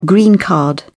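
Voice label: "green card", British English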